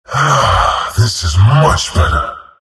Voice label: happy; Dota 2